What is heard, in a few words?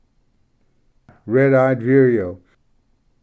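"red eyed vireo", English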